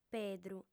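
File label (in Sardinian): "pedru", female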